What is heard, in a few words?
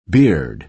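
"beard", American English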